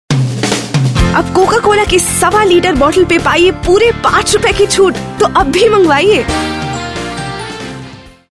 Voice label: female voice Hindi

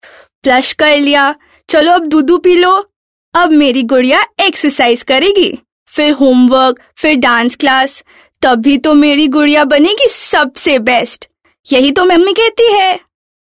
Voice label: Hindi, female voice

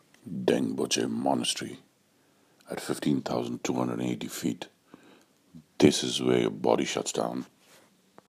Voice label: English, male voice

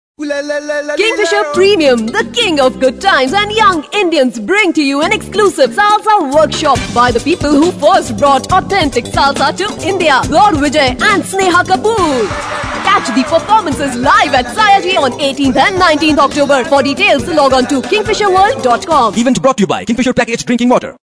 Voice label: female voice; KINGFISHER 91 fm

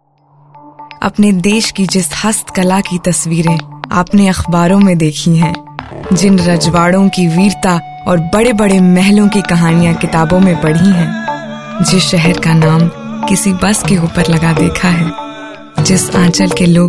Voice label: female voice tourism hindi vo

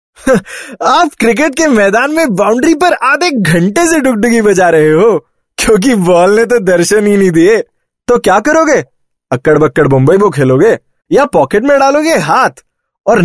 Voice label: Hindi Radio, male voice

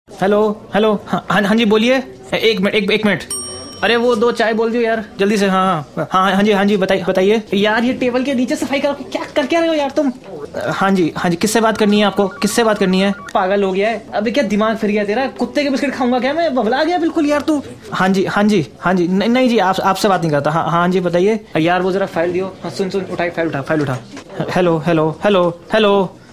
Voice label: joke Prank Call, male voice

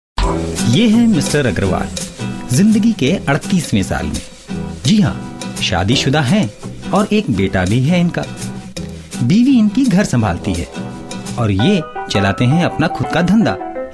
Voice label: hindi vo narration, male voice; delhi

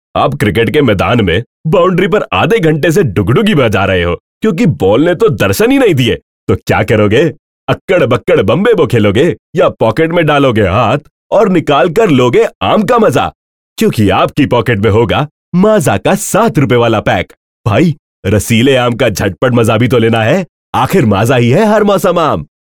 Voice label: hindi, male voice

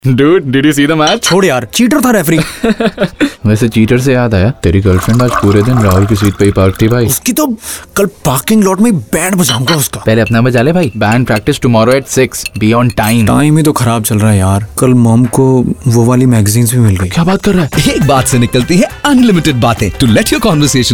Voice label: vo, male voice

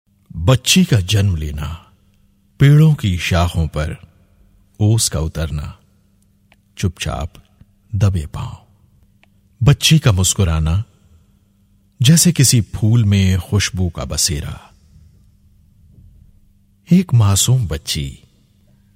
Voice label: Hindi, male voice

